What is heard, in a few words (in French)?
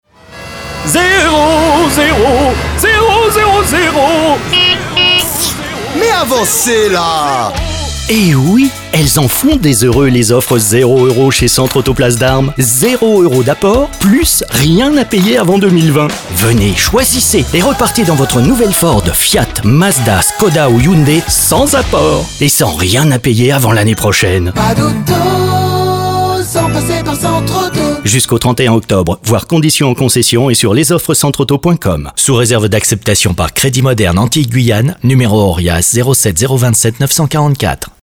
spot; CENTRE AUTO